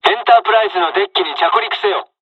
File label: landing, dialogs japanese; midway us; War Thunder